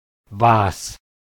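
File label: "was", German; klettres; tdeedu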